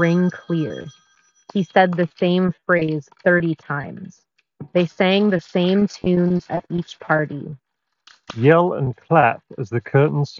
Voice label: Office microphone sample; Skullcandy Indy Evo

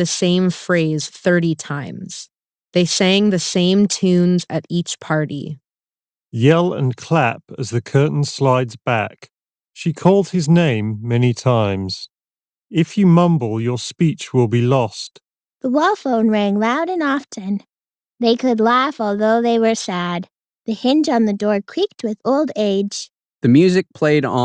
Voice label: Ideal microphone sample; Audeze Maxwell BoomDongle

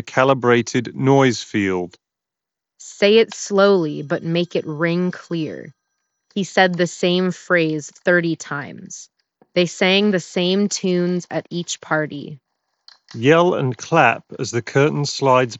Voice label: HyperX Cloud III S Wireless office

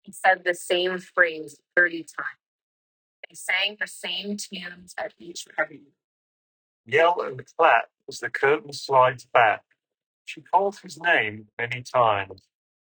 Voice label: with ANC Wind; AirPods 4; active noise cancellation

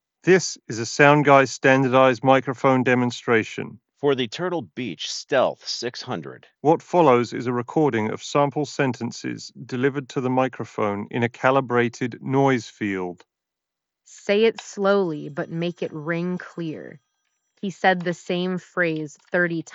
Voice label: MIC office; turtle beach; STEALTH 600

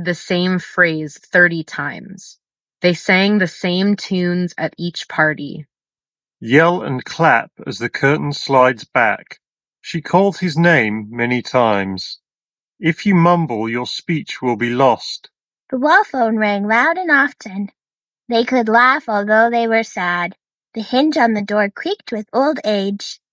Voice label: Samsung Galaxy Buds3 FE ideal

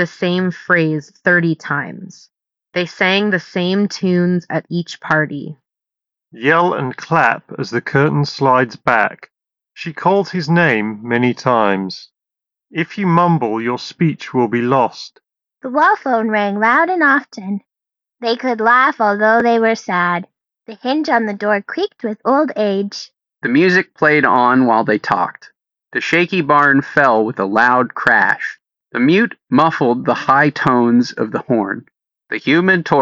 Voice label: Ear Open Ideal microphone sample

